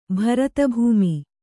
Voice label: "ಭರತಭೂಮಿ", Kannada; bharata bhūmi; noun

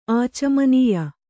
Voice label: "ಆಚಮನೀಯ", Kannada

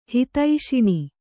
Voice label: "ಹಿತೈಷಿಣಿ", Kannada

